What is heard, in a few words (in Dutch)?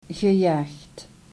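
"gejaagd", Dutch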